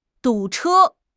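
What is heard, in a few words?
"du3 che1", Mandarin Chinese; HSK4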